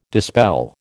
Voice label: "dispel", American

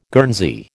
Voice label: "Guernsey", American

